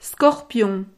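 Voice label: "scorpion", French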